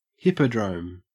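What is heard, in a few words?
"hippodrome", Australian English; pronunciations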